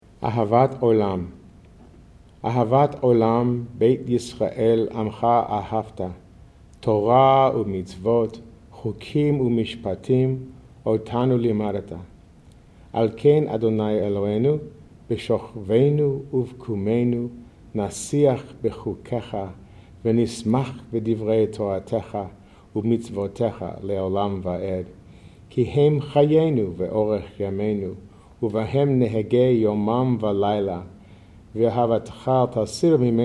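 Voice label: Ahavat Olam, male; Shabbat Evening Readings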